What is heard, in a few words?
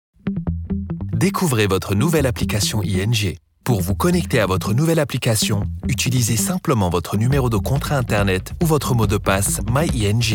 French Belgian Accented Corporate Explainer